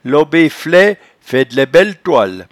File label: "lobifle", patois jura